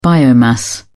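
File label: "biomass", British English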